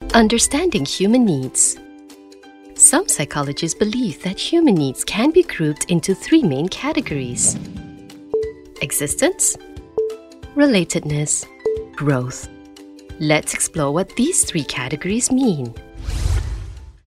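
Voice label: Malay Accented English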